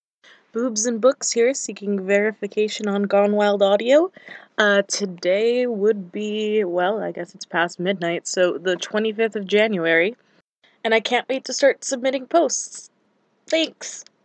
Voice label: Verify a female please